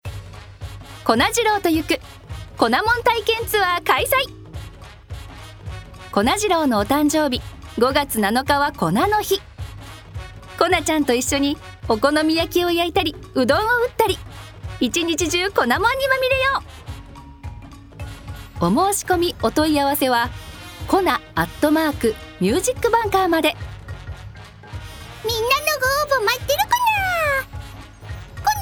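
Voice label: narration tour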